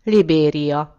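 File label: "liberia", Hungarian; gcompris; geography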